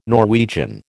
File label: "Norwegian", American